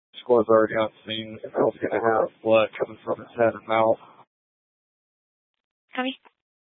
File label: Police 1 Dispatch